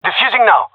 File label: defusing, gendarmerie male; vo